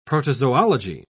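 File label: "protozoology", British English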